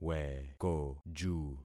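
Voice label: "wekoju", concatenated syllables; Affixing experiment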